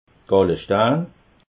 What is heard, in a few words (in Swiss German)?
"gallestein", alsace